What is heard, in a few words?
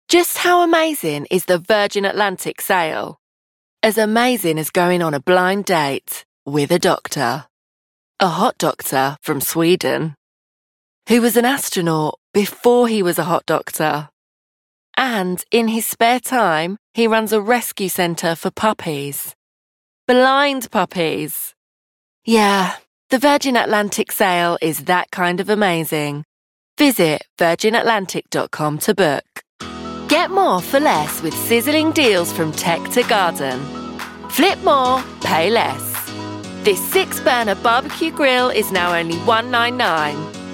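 British English, Commercial; GoLocalise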